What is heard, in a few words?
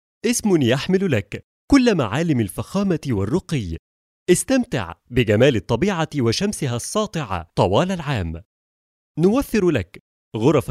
arabic promos